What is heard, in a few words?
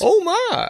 "oh my", male; Voice; expletives